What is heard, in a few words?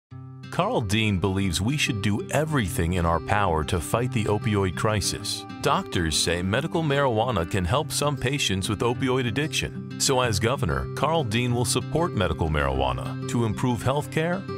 Political, Commercial - Real & Conversational